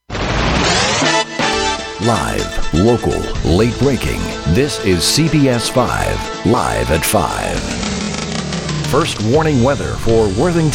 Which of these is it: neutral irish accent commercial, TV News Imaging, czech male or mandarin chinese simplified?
TV News Imaging